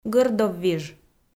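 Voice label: "гӧрдоввиж", Komi, female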